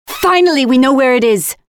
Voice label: Win match, Voiceovers; Project Argo